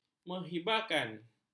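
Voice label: "menghibakan", Malay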